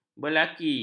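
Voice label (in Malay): "berlaki", Malay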